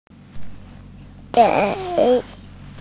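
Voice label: "dei3", Mandarin Chinese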